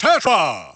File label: Fan-Made Announcer Call; Super Smash Bros. Brawl